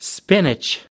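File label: "spinach", American English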